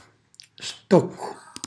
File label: "stoccu", Calabrese; cucinacalabrese; glossario